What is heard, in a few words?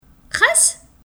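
Kabyle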